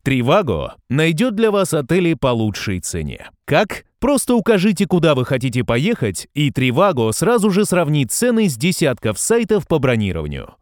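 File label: Russian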